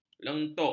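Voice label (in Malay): "lentuk", Malay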